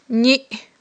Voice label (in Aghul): "ниъ", aghul